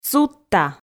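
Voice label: "судта", Komi, female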